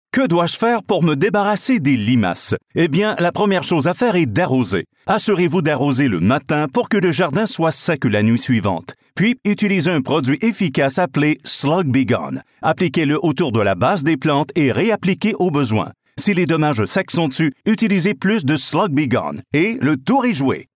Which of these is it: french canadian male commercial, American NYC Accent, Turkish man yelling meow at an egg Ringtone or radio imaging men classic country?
french canadian male commercial